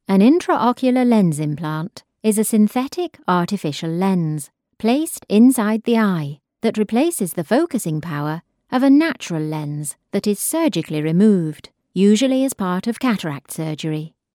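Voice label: English, UK, medical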